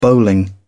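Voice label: "bowling", English, British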